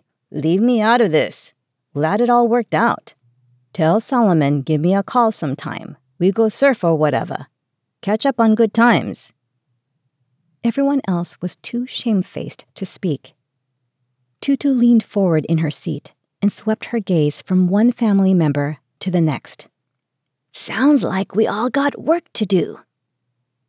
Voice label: Crime Fiction 3rd Person Hawaiian Accent MFF